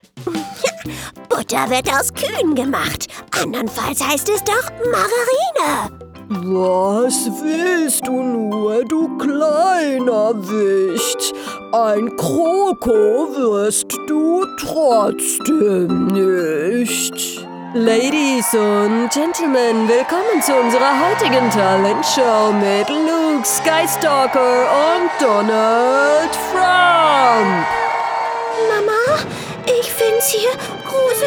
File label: Cartoon Voices and Kids